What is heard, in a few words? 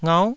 "ngauh9", taiwanese syllables